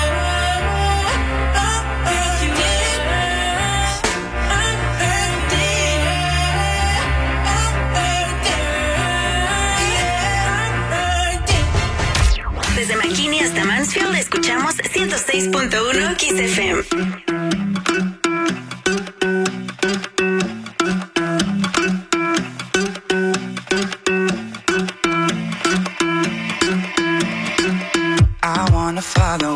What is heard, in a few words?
Spanish; KHKS; spanish liners on english stations